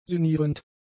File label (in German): "sinnierend", german; adjectives